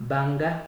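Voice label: "banga", Nigerian Pidgin, male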